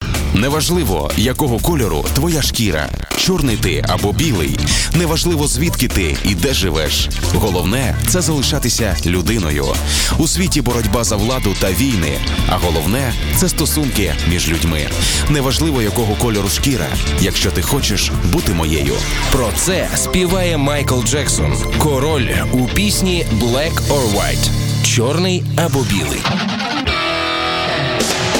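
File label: Ukrainian, voice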